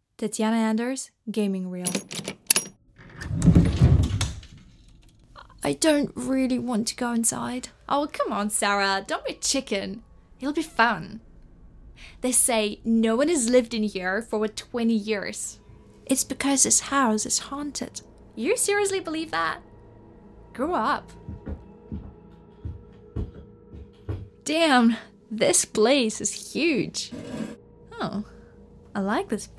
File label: English voiceover